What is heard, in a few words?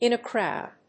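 "in a crowd", English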